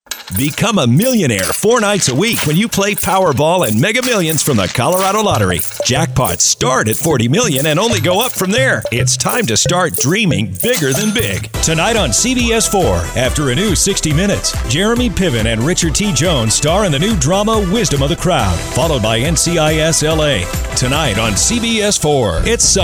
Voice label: Commercial, American male voiceover